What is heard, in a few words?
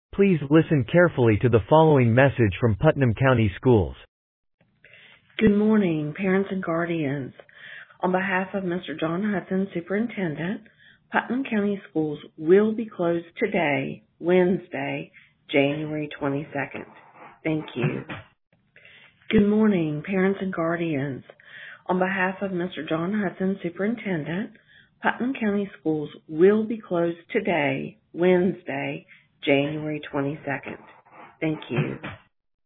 Voicemail